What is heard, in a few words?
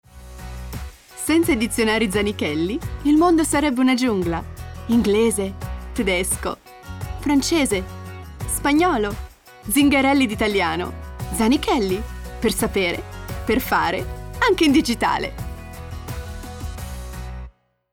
italian commercial